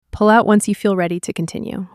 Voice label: Female Pull Out